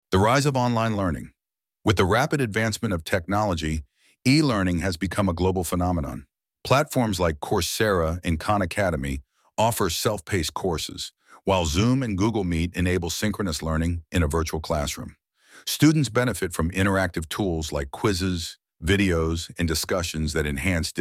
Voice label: Reading; Online Learning